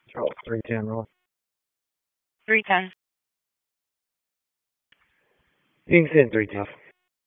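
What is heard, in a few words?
Police Northeast District Disp, radio; Raleigh; p25; wake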